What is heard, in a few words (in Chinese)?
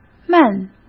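"闷", Mandarin Chinese; chinese flashcards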